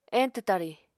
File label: "eñtw tari", Apatani